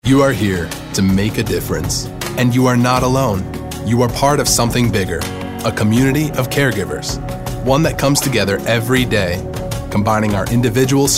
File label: thoughtful